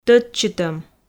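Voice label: "тӧдчытӧм", Komi, female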